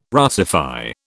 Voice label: "Russify", British